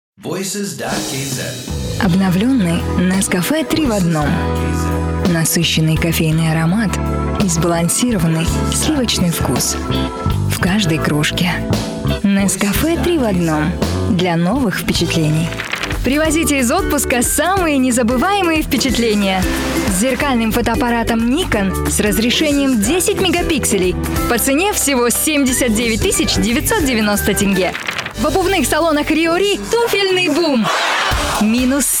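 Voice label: Russian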